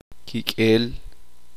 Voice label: "sangre", Uspanteko

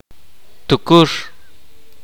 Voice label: "owl", kaqchikel

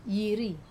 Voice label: "iri", Wamesa; to choose

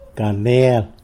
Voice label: "canel", tlacolula; cinnamon

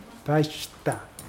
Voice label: arrive, Mokilese

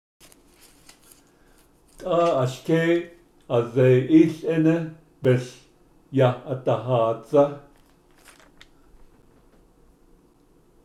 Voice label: We went one at a time to see the doctor, Navajo

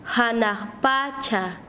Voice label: Chanka, quechua; cielo

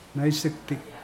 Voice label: "born", Mokilese

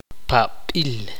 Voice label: "hacha", Tektiteko

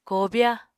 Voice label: "kobya", Apatani

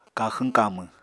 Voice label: "kaxwñ-kamwñ", Apatani

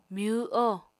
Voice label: "myw-oho", Apatani

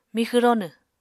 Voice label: "mixw ronw", Apatani

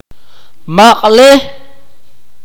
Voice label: "fight", akateko

